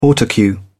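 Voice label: "autocue", British English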